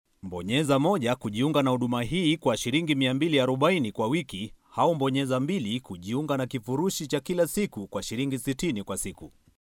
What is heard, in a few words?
male swahili voice over talent upbeat